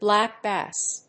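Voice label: "black bass", English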